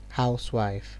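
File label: "house wife", Nigerian Pidgin, male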